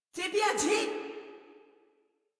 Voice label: Reload Female; Warframe; Modular Corpus Female